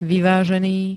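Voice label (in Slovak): "vyvážený", Slovak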